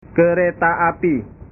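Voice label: "kereta api", Indonesian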